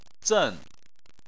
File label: "zen4", Chinese